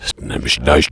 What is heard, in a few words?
judgement, voiceover reversed